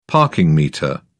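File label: "parking meter", British English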